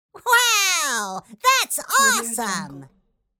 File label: cartoon voice wow thats awesome